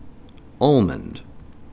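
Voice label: "almond", Canadian male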